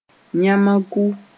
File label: "yãmaku", Moore